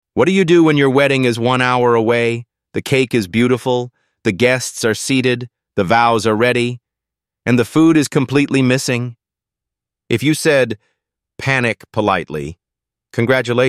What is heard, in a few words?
voiceover